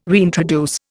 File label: "reintroduce", Indian